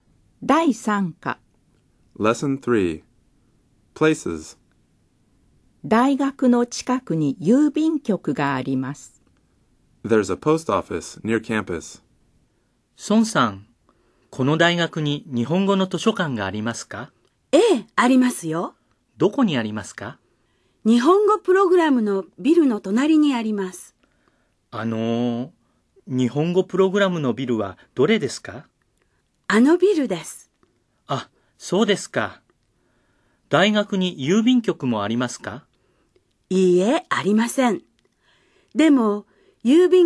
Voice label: Dialog